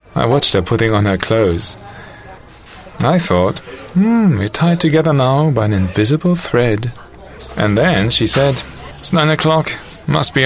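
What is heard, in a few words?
German English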